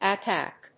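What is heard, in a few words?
"ack-ack", English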